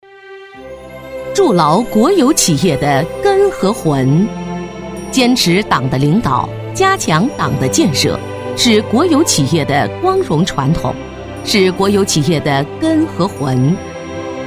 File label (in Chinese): 专题 企业 稳重; 齐鲁